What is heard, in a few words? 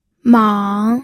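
"mang", Mandarin Chinese, third tone; pinyin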